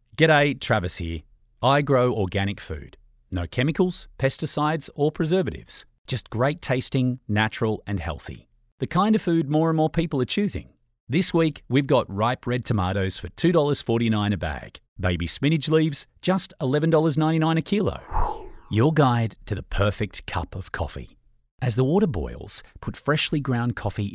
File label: Conversational